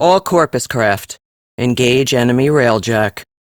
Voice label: Captain Female, combat start, en; Warframe; Railjack; Crp Captain

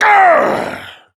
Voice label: Angry; Warframe; Tyl Regor Boss; Efforts

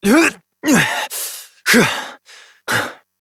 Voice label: Horse Dismount Adult; Duviri

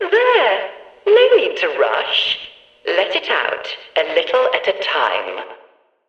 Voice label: Background Announcements

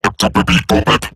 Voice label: Player Spotted, Robot Large

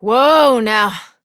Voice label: Horse Stop Walk Adult; Duviri

